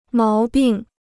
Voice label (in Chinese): "毛病", Chinese